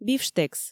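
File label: "бифштекс" Komi, female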